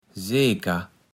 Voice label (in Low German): "seeker", Platt